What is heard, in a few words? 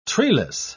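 "treeless", British English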